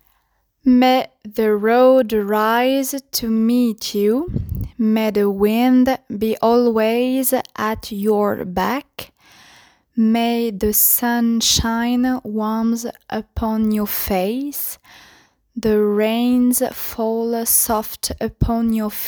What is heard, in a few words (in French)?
An irish blessing prononciation